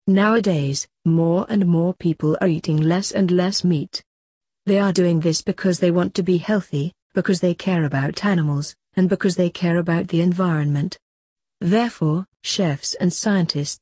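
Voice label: British; impossible burger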